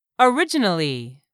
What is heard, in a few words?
"originally", English; 3000 words